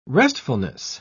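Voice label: "restfulness", English, British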